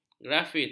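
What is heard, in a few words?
"grafit", Malay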